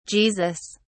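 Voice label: "Jesus", British English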